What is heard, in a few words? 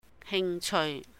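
"hing cheui", Cantonese; interest; 興趣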